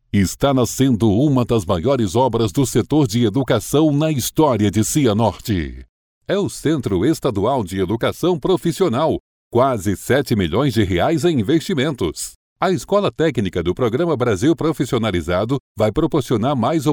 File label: portuguese brazilian political ads; Locutor brasileiro